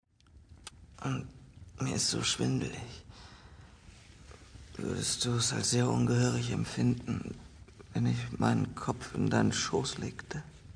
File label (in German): Sterbeszene; Das zweite Koenigreich